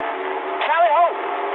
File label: eng enemy detected mood high, speech eng